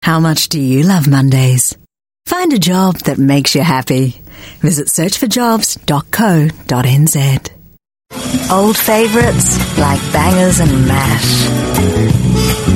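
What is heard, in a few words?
New Zealand English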